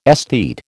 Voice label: "esthete", American